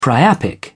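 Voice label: "priapic", British English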